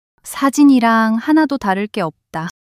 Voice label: shy